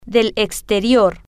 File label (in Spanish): "del exterior", Spanish